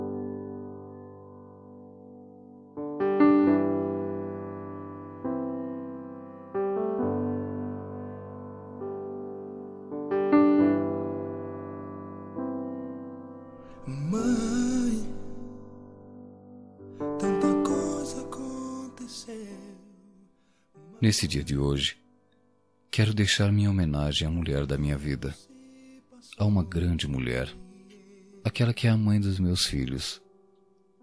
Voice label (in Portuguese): voz masculina; dia das maes romantica para esposa